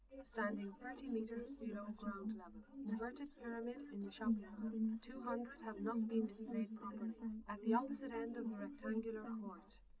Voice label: female liverec 130ms 5cm; SiSEC10; underdetermined